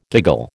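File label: "giggle", American English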